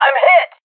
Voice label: prey, fox male